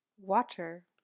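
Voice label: "water", English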